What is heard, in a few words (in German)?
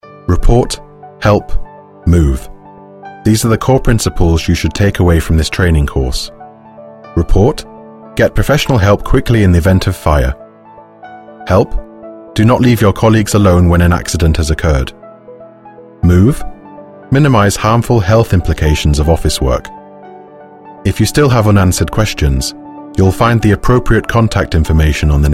Elearning Beispiele